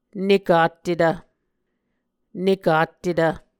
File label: "nekattetv", Muscogee